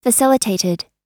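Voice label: "facilitated", American English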